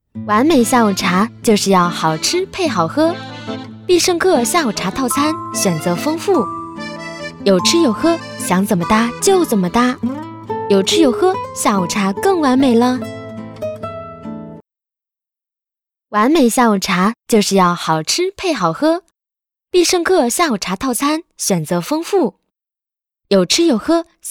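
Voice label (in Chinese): 广告活力活泼广告; 必胜客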